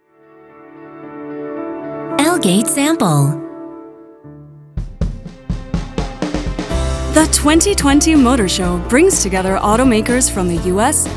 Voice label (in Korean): 영어 여 밝은 톤